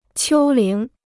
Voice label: "丘陵", Chinese